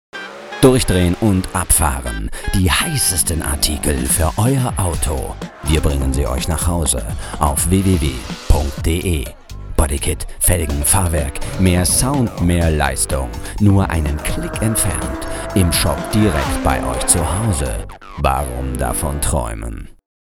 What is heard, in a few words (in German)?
werbung TV national